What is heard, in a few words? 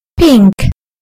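"pink", British English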